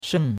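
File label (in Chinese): "shen4" Chinese; Cours de Chinois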